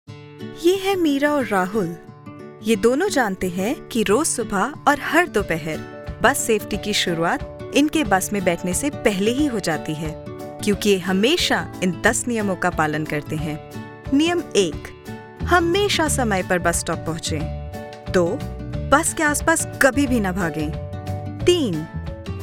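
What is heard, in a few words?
Hindi E-Learning; voice demos; GoLocalise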